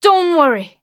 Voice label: defeat, en